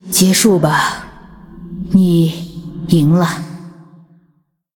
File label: Female Ghost CONCEDE; zh